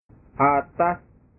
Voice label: "atas", bahasa

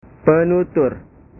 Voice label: "penutur", bahasa

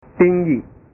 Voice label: "tinggi", bahasa

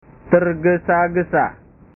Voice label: "terges agesa", bahasa

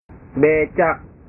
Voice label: "becak", bahasa

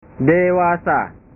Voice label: "dewasa", bahasa

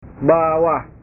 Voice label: "bawah", bahasa

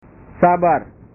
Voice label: "sabar", bahasa

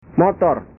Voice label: "motor", bahasa

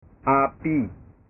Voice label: "api", bahasa

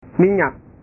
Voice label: "minyak", bahasa

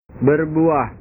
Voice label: "berbuah", bahasa

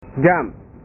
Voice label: "jam", bahasa

